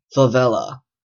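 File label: "favela", Canadian English; pronunciations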